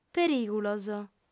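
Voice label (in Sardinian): "perìgulos", female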